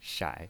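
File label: "shai", Mandarin Chinese pinyin, third tone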